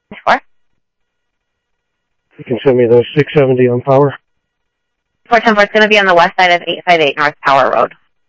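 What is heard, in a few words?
Sheriff East County, scanner audio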